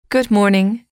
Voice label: good morning, English

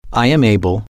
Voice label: i am able to can, English

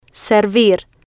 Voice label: "servir", spanish

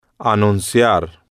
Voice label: "anunciar", Spanish

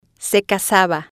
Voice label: "se casaba", spanish

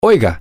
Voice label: hey, spanish, M

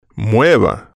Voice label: "mueva", spanish

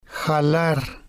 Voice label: "jalar", spanish

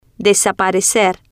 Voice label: "desaparecer", Spanish

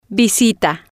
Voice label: "visita", Spanish

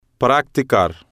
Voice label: "practicar", Spanish

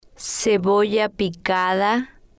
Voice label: "cebolla Picada", spanish

